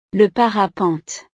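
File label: "le parapente", French; deportes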